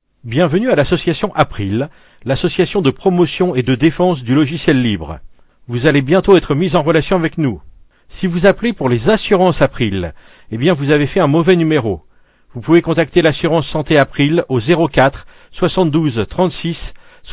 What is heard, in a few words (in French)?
Message repondeur; asterisk